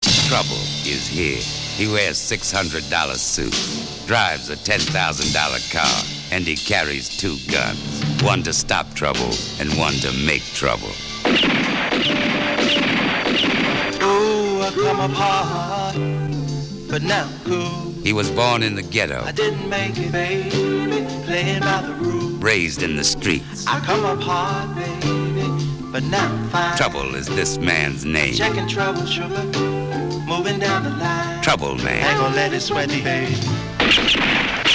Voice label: trailer voiceover